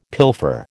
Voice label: "pilfer", American

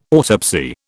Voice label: "autopsy", British

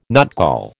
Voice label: "nutgall", American

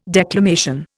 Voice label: "declamation", Indian

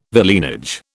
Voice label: "villeinage", British

